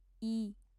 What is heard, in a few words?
"i" short